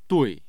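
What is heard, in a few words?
"dui", Mandarin Chinese, tone 4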